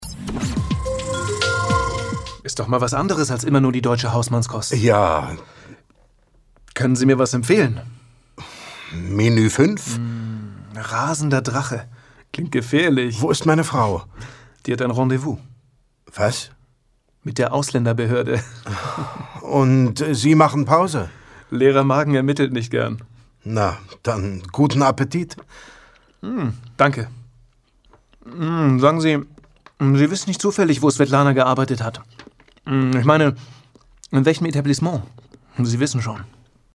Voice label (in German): hoerspiel